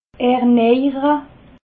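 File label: "ernejere", Haut Rhin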